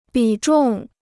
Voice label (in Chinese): "比重", Chinese